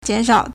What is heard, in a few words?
"jian3 shao3", Chinese